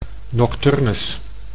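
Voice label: "nocturnus", Dutch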